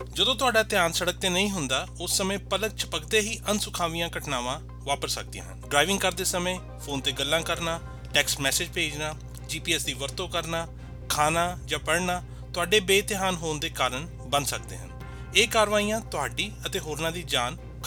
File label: Employee Driver version ad Punjabi; IHSA; distracted driving campaign; road safety